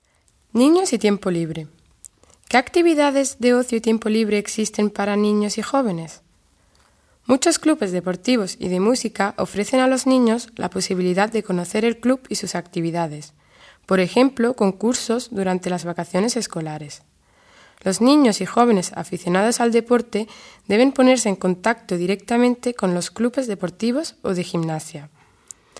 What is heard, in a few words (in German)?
freizeit mit kindern spanisch